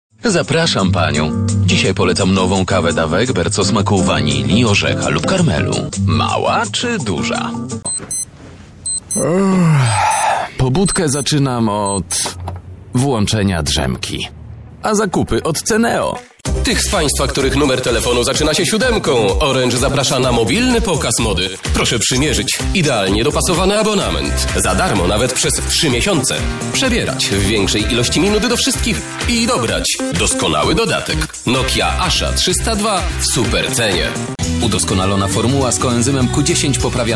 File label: polish VO commercial